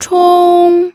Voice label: "chong1", pinyin